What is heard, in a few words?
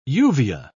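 "uvea", British English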